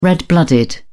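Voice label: "red blooded", British English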